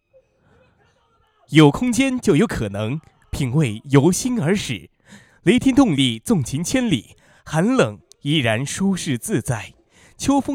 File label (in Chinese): 广告词样音